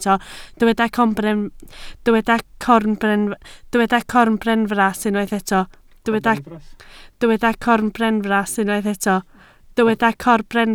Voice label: "corbenfras", Welsh